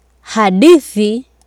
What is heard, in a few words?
"hadithi", Swahili